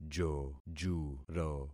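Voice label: "jojuro", concatenated syllables; Affixing experiment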